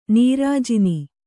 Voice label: "ನೀರಾಜನ", Kannada

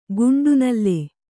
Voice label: "ಕಂಠನಾಳ", Kannada

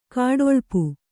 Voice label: "ಗೂಢಲಿಪಿ", Kannada

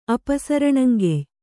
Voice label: "ಅಪಸರಣಂಗೆಯ್", kannada

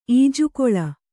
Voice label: "ಈಜುಕೊಳ", Kannada

